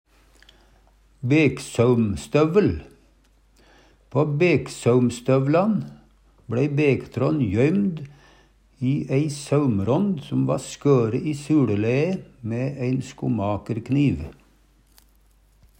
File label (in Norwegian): "beksaumstovel", Numedalsmål